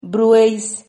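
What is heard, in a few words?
"brueis", Spanish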